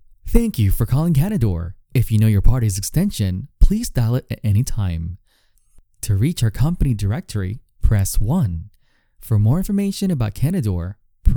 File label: Phone Operator